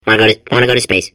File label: babble; portal2